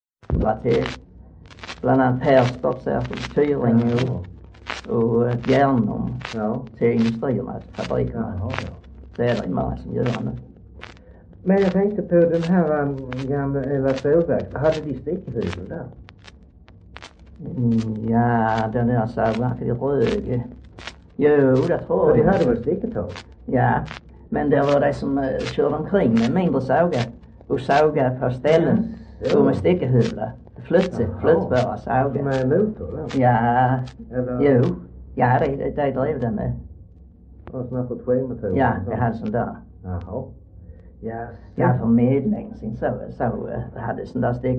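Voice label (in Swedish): Röke Skåne, dialekter; Folkminnen; Svenska sagor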